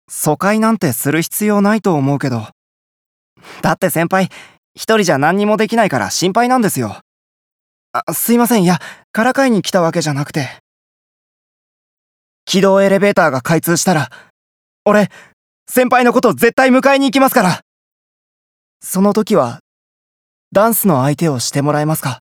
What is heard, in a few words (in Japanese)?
純粋な後輩